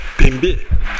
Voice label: "denbi", minamiyamagata